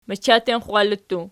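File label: "machattenxwalli", plural, Archi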